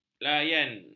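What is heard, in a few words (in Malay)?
"layan", Malay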